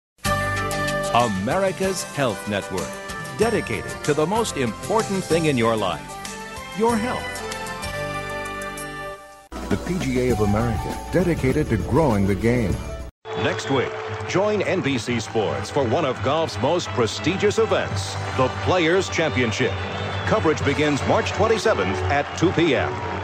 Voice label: imaging, male voice talent